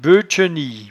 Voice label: "beutchenie", patois jura

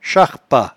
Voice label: "charpat", patois jura

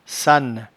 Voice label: "sanne", patois jura

